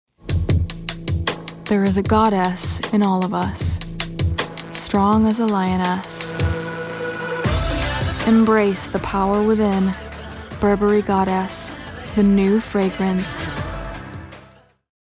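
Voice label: us, English - North America; burberry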